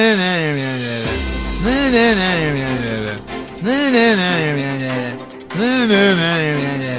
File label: reporter yapping; roblox